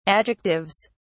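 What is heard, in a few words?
"adjectives", British English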